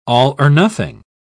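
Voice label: "all-or-nothing", English, British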